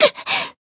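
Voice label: Voice damaged, Japanese; rosetta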